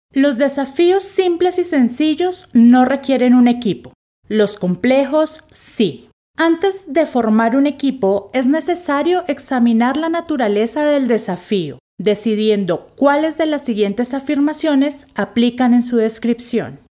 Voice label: Muestra Voz curso

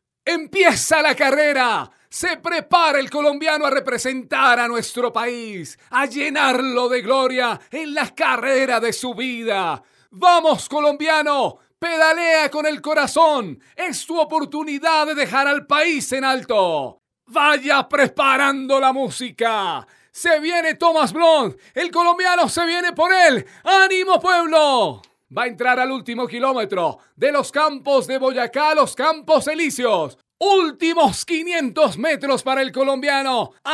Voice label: Muestra Voz narracion ciclismo

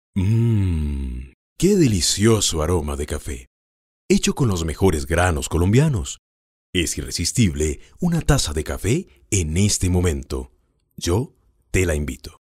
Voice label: comercial cafe

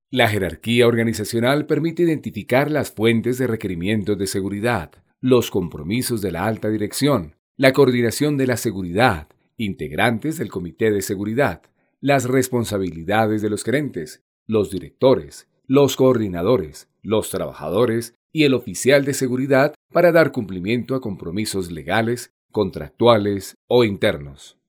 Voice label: Muestra Voz Empresarial

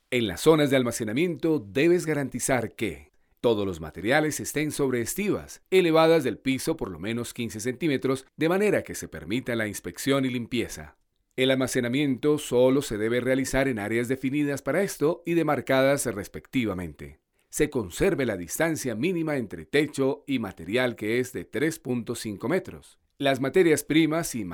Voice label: muestra voz didactica

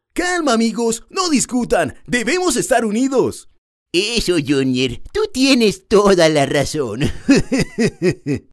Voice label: voz actoral

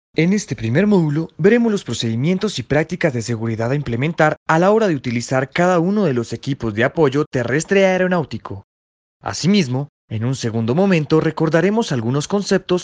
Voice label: institucional

